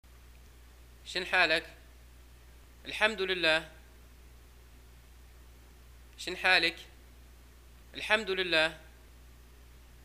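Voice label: greeting how are you, Libyan arabic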